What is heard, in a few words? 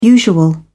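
"usual", British English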